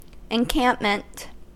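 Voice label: "encampment", US English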